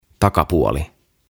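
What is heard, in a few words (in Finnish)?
"Takapuoli", suomeksi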